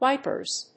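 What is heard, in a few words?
"wipers", English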